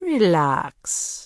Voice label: Troll Female Laid Back NPC Greeting

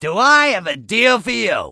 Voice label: Goblin Male Gruff NPC Greeting

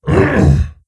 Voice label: Wound, Creature; Prophet Tharonja

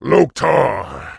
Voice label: Orc Male Guard NPC Greeting

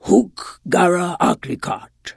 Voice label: Tuskarr Female Farewell; Magic User

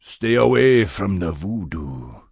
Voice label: Troll Male Standard Farewell; NPC

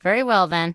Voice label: Gnome Male Young NPC Farewell

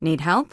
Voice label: Human Female Warrior NPC Greeting

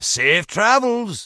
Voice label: Dwarf Male Standard NPC Farewell

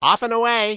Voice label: Gnome Male Standard NPC Farewell

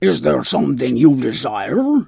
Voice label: Tuskarr Female Vendor; Magic User

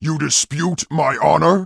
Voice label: Orc Male Standard Pissed

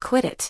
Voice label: Human Female Warrior NPC Pissed

